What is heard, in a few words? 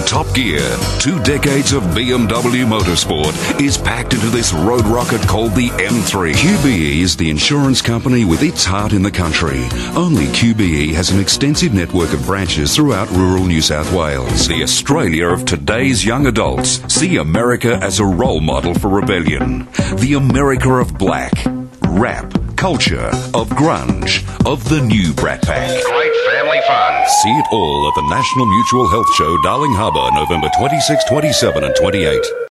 AUS English, English Australian Male